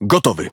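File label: Speech Pilots Bomber Ready, Polish; World Of Warships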